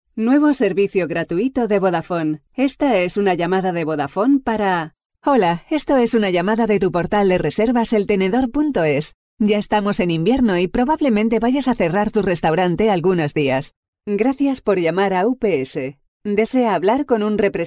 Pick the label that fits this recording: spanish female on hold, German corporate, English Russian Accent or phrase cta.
spanish female on hold